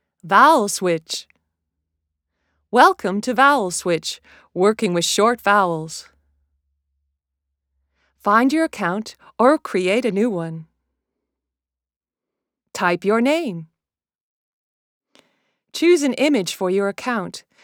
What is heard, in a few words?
instructions, voiceover